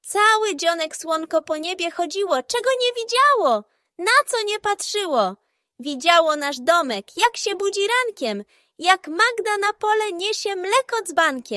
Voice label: audiobook; Co slonko widzialo; Maria Konopnicka